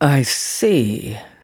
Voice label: neutral; opal; en